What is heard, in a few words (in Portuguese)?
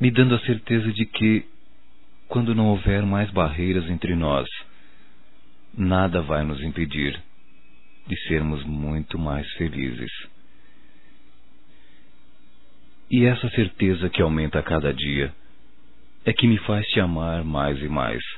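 voz Sonho Com Você, masculino; Telemensagens; Telemensagens 2017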